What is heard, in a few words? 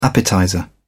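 "appetizer", British English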